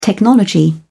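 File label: "technology", British English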